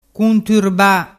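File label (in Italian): "cunturba", Vernazzese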